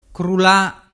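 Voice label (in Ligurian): "crulla", Ligurian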